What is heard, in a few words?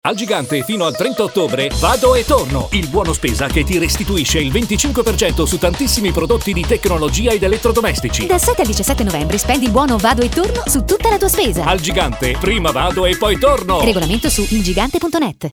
spot; Il Gigante 2013